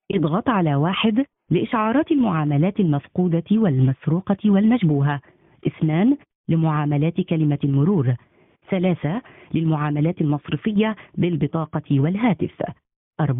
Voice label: finans sound, Arabic (Fosha-formal), Female

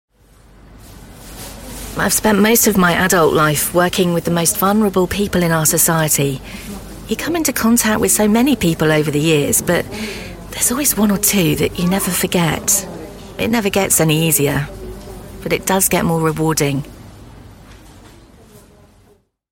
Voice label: commercials natural

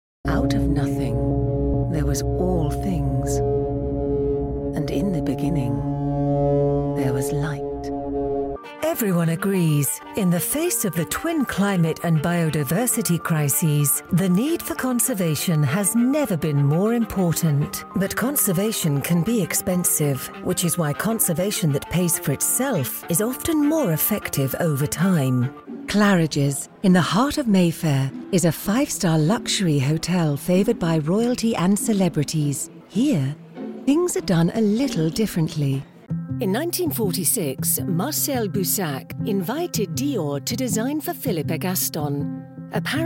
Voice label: narration

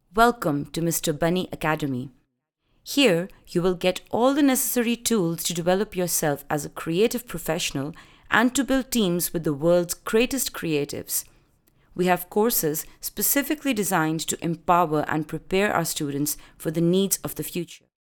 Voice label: announcement; bunny academy

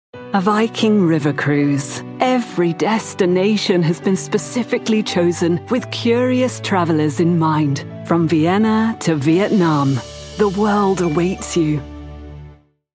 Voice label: commercial viking cruise

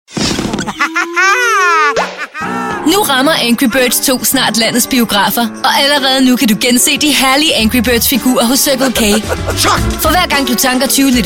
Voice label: commercial; circle k angry birds

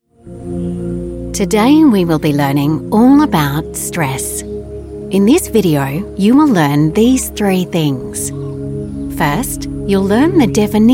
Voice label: elearning 6 9

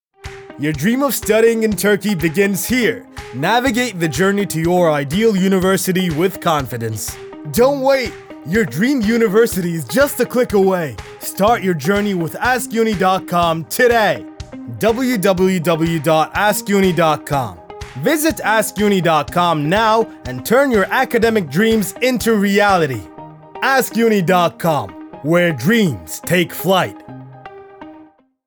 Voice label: english commercial reklam

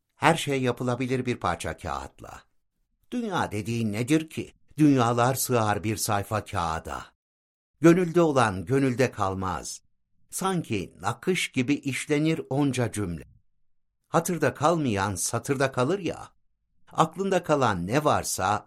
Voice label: e-book

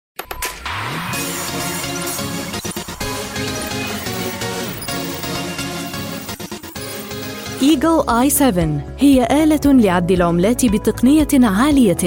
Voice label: e-learning

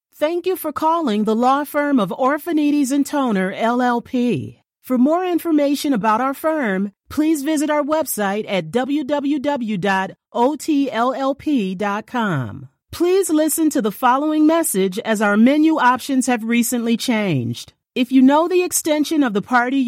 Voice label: m phone ivr vo

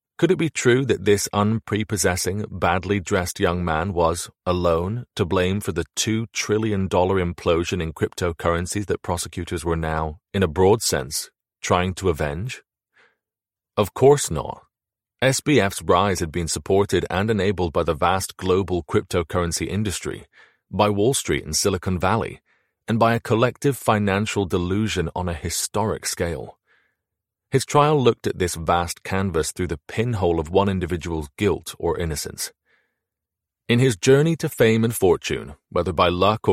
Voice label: non fiction audiobook

